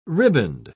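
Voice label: "ribband", British English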